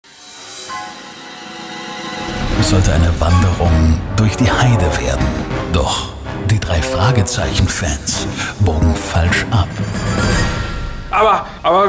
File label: trailer